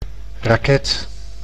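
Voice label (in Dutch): "raket", Dutch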